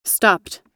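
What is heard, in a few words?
"stopped", US English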